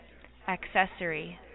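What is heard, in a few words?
"accessory", USA, female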